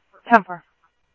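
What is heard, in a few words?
Simulcast police department, scanner audio trunk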